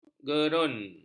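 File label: "gerun", Malay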